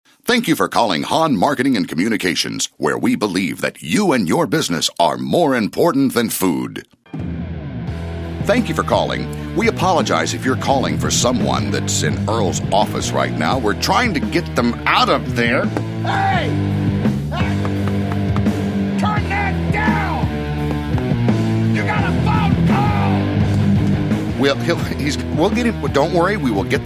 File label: On Hold